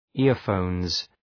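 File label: "earphones", English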